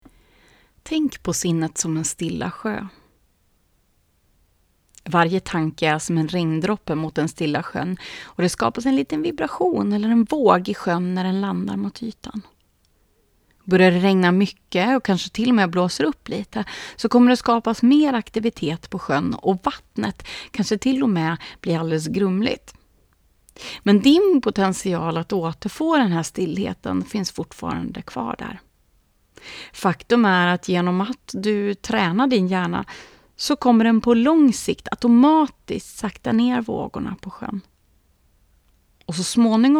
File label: Meditation Intro; Grund 1 Meditation